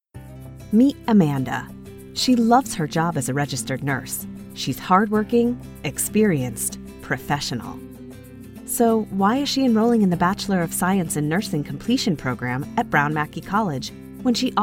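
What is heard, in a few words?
English US female Coporate; voice over; american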